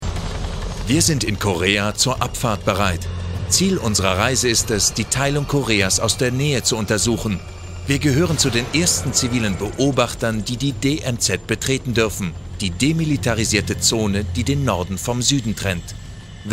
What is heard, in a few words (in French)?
voices docu korea allemand